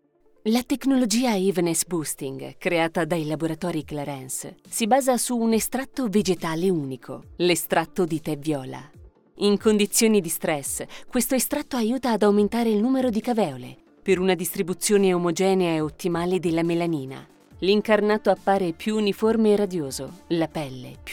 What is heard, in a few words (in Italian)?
corporate con base; clarins